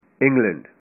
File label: "England", punjabi